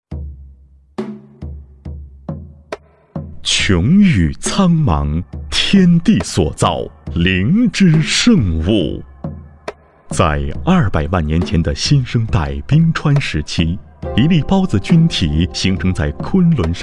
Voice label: 男 中医文化专题; 七彩灵芝

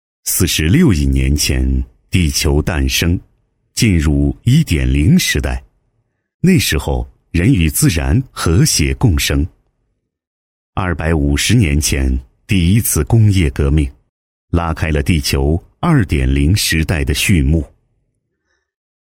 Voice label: 男 记录片, 国语男声配音, 浑厚; 地球历史简介

